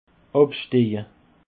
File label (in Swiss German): "absteje", alsace